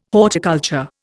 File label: "horticulture", Indian